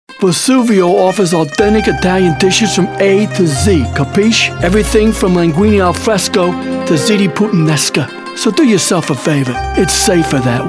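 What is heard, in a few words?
Character, animation, Voiceover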